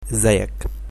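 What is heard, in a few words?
how are you, Egyptian Arabic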